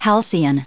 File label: "halcyon", en us